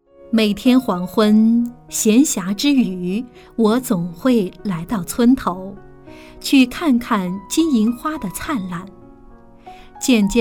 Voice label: female chinese voice over narrative, mandarin

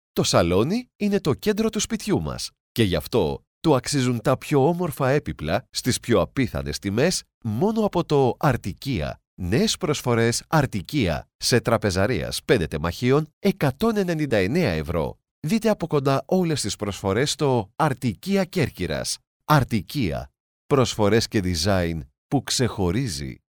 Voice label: male greek voice over sales

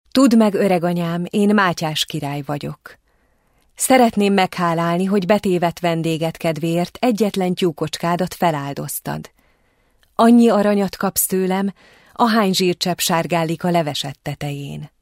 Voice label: female hungarian voice over narrative

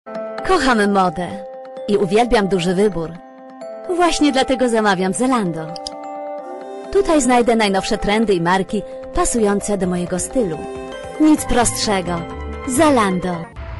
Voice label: female polish voice over sales

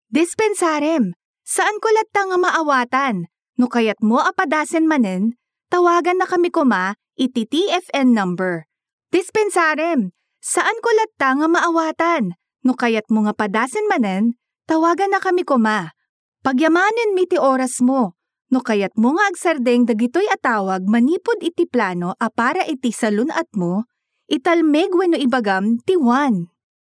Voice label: female ilocano voice over narrative

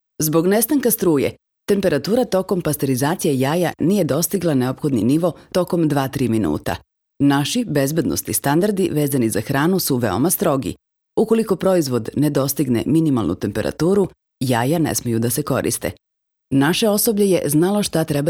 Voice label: female serbian voice over narrative

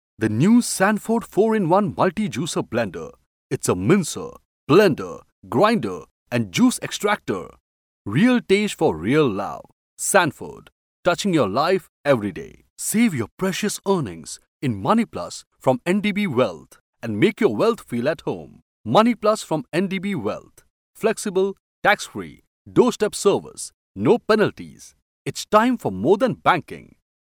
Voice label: male indian voice over talent sales

